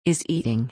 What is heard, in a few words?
is eating, en